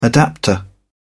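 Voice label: "adapter", English, British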